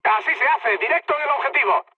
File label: bomb success, mood high, speech; War Thunder